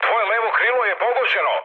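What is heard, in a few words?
Serbian left wing mood med; War Thunder; speech srb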